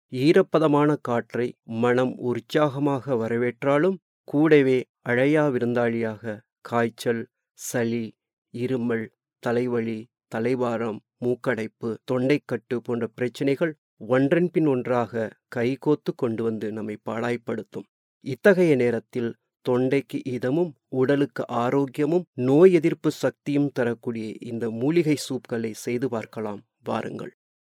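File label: Tamil, Sri Lanka, male; tamil voice over talent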